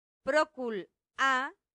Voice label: "procul a", latin; santillana